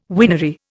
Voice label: "winery", Indian